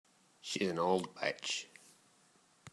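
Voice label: "Auld", slang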